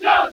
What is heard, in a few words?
"halt", en; HeavenStudio; marching Orders